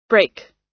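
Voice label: "break", US English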